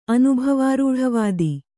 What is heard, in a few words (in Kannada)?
"ಅನುಭವಾರೂಢವಾದ", kannada